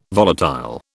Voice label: "volatile", British